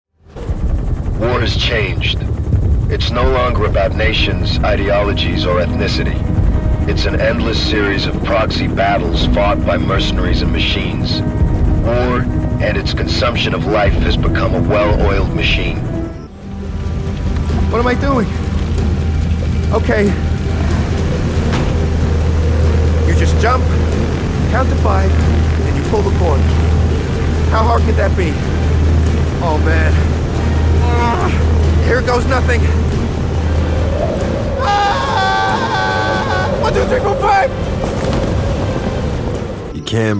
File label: Character